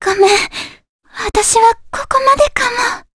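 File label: Vox Dead, Japanese; kingsraid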